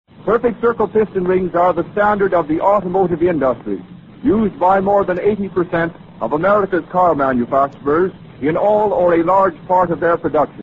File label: commercials perfect circle piston rings